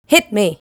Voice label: "Hit Me", vocal phrases; Ultimate Vocals